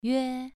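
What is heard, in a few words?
"yue2", Chinese pronunciation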